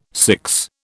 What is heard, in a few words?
"six", British